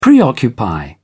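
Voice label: "preoccupy", British English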